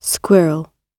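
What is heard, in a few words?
"squirrel", British English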